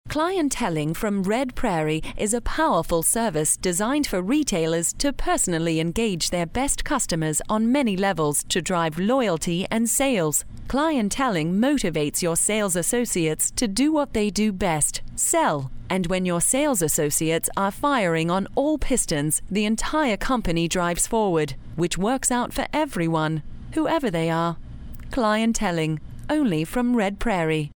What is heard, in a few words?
Narration, Female, british; Narration, Corporate, International Voiceover, British